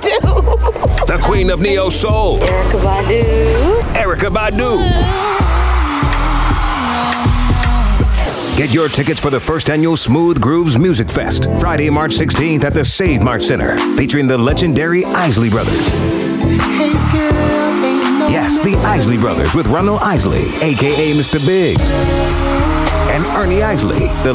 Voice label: PARTY CONCERT RADIO